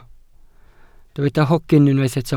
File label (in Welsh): "hogyn" Welsh